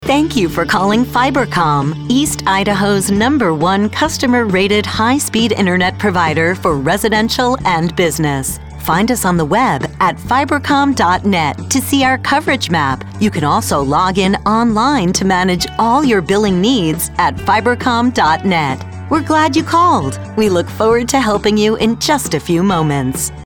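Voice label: English US Female phone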